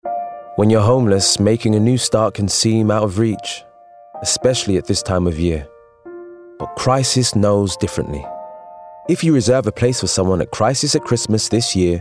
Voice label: Commercial; Crisis